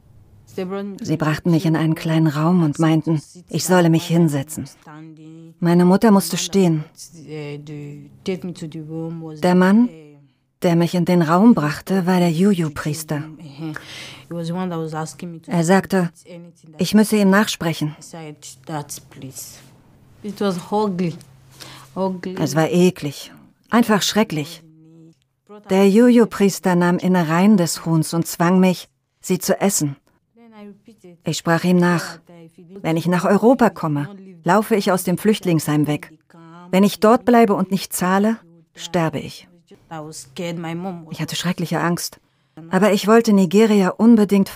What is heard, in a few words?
Voice Over; ARTE/ARD; Dokumentarfil; Rolle:Victory